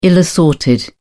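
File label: "ill assorted", British English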